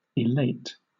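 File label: "elate", British English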